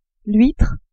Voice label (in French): "huitre", french